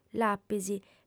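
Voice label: "lapis", female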